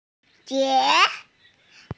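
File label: "hu2die2", Mandarin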